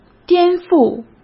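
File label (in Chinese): "颠覆" Mandarin; hsk; chinese-flashcards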